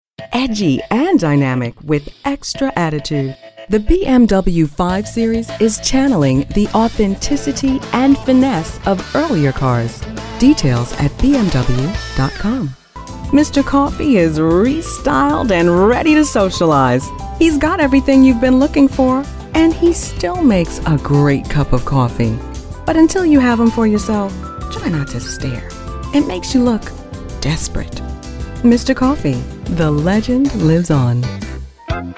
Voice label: Welcome Commercial